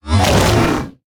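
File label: info, Japanese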